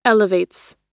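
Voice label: "elevates", American English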